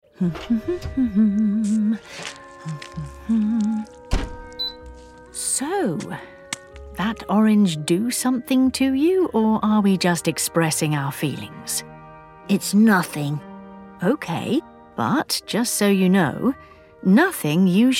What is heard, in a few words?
Animation; home studios